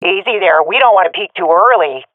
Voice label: round winning, swat fem; vo; Counter Strike Model Pack; CS:GO